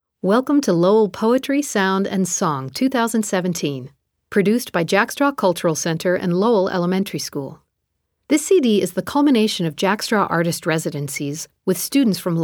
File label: Opening Narration